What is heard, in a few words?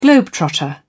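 "globetrotter", British English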